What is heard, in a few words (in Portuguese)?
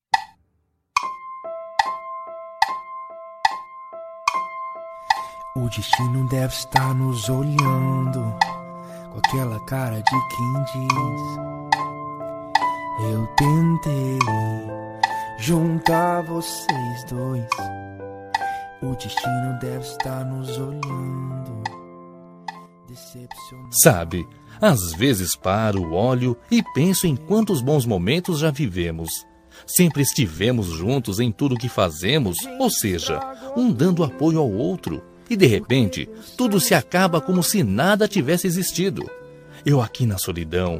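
reconciliacao masc, Telemensagens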